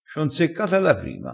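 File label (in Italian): "inzecca", crespinese dialettale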